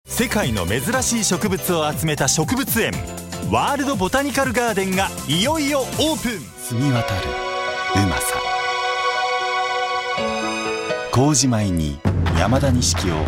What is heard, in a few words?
japanese commercial; japanese voice over artists